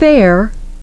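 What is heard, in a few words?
"fare", English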